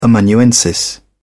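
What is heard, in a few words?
"amanuensis", English, British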